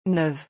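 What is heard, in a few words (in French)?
"neuve", French; vocabulaire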